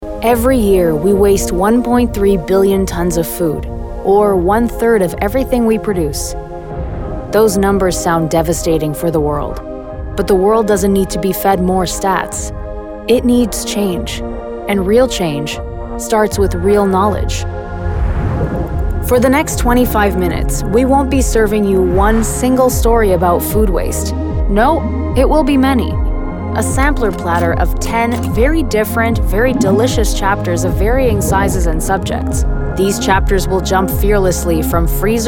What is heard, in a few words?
Documentary English